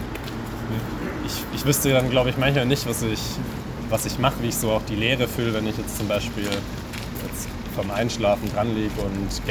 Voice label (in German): Strassenumfrage Musik